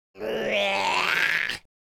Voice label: angry, Pirate

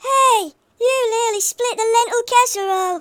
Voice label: missed, speech, Hippy

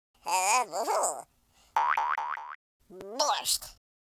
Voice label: taunt, Russki

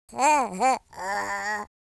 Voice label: sad, speech; Confucious

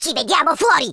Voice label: just you wait, speech Italian

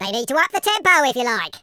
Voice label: come on then, Footy Fan

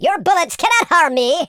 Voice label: "I'll get you", super hero speech

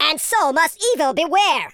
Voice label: "first blood", super hero speech

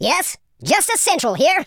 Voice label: orders, speech, Super Hero

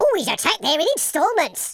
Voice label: go away, Footy Fan

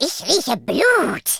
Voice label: firstblood, speech German

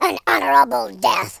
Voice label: "fatality", speech

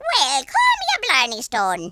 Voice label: "what the", Irish; speech

